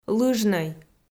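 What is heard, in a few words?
"лыжнӧй" Komi, female; kpv audio dict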